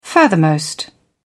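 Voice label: "furthermost", British English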